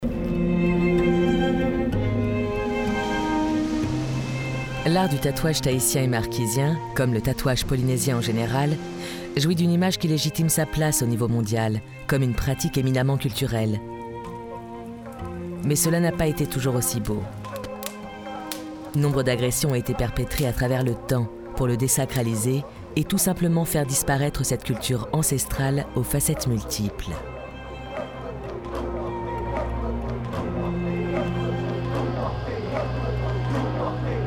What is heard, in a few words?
narration; tatau